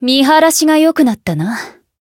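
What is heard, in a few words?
win, Japanese; isuka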